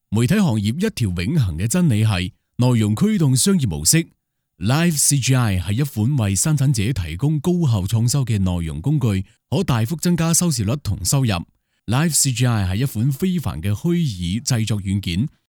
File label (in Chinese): 粤语; 鲸读全球; 加拿大